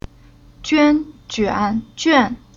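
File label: "Juan", Chinese syllable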